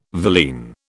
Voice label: "villein", British